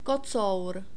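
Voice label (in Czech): "kocour", czech, male; diphthongs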